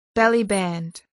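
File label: "bellyband", English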